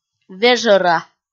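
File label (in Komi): "вежӧра", Komi, female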